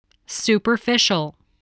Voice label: "superficial", spelling